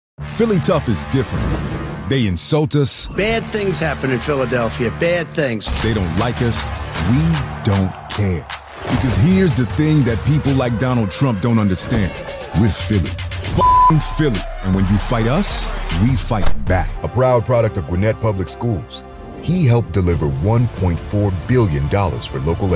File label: men political